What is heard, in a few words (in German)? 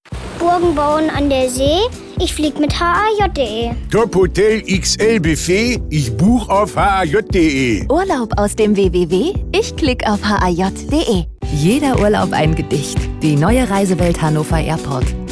Funkspot; Hannover-Airport